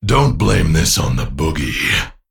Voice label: Male Crystal Golem

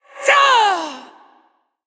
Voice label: Female Spirit Healer Death

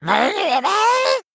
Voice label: Male Murloc Play

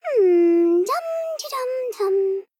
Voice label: Female Gnome Thinking

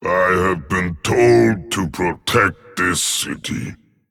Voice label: Male Dragon Evil Fight

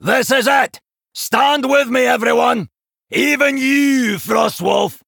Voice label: Male Dwarf In Game Introduction; Tavish

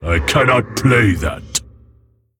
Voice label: Male Mogu Error Play

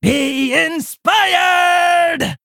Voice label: Female Orc Attack

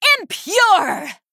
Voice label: Female Blood Elf Attack

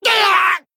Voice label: Male Vulpera Death

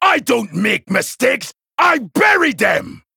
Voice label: Male Troll Play

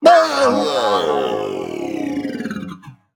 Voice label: Male Mech Death

